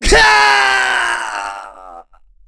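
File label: Vox Dead, English; Kasel; kingsraid; voices heroes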